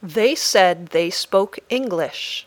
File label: Dictation